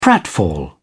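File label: "pratfall", English, British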